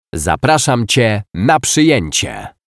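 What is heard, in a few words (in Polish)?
"Zapraszam cie na przyjecie", Polish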